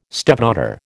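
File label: "stepdaughter", American English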